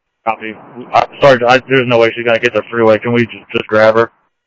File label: Mesa Simulcast police; jumper